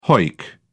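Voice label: "hoick", British English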